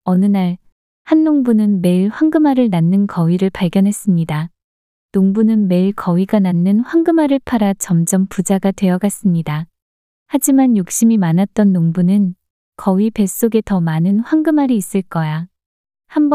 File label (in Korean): 문장 여자; 농부가 거위를 잃은 이유